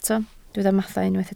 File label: "mathau", Welsh